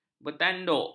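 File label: "bertanduk", Malay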